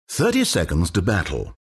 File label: announcer count battle; dlc stanleyparable